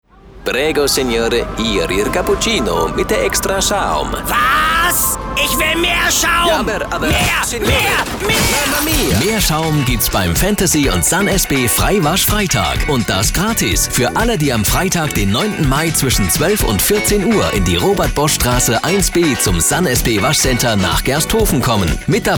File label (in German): sprecher werbung; mehr schaum